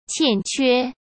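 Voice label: "欠缺" Mandarin Chinese; chinese flashcards; hsk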